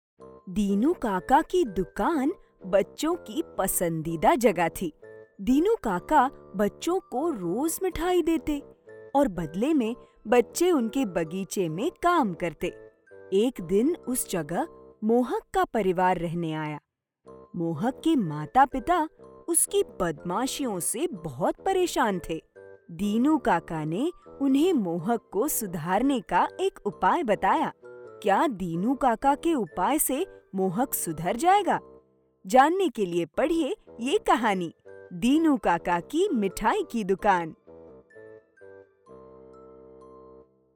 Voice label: Hindi Audiobook